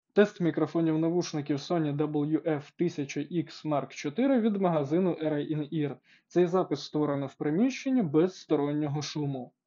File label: Sony WF-1000XM4 Indoor